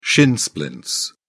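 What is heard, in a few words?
"shin splints", British English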